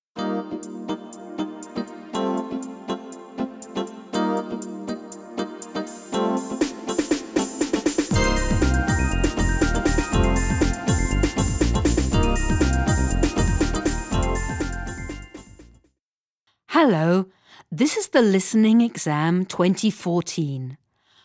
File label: Airport announcements; Englisch; Bayern; Hoerverstehen